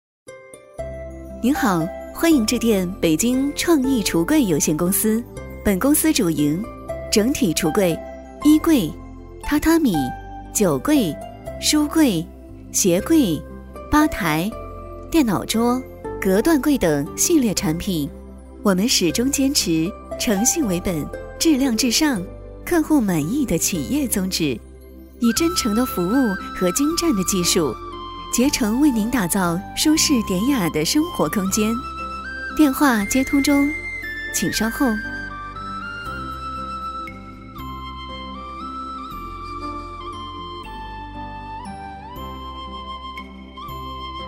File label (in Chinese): 女 彩铃 家装 橱柜; 创意橱柜有限公司